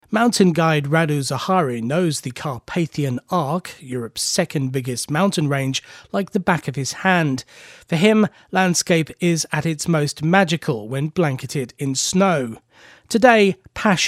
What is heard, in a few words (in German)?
Neutral; EngUK